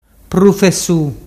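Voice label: "prufesu", Ligurian dialect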